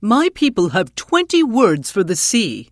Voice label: Tuskarr Female Pissed; Warrior

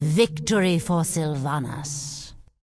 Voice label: Undead Female Magic Farewell; NPC

